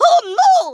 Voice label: "take cover", Kamikaze; Worms Armageddon